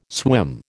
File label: "swim", American